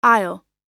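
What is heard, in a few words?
"aisle", US English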